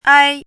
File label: "āi", hanzi